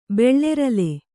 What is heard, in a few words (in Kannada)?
"ಬೆಳ್ಳೆರಲೆ", Kannada